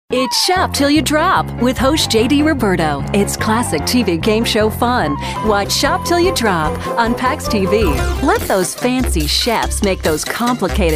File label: Promo